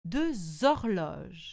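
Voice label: "deux horloges", French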